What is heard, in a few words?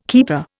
"keeper", Indian